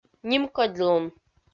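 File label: "нимкодьлун", Komi-Zyrian, female; kpv audio dict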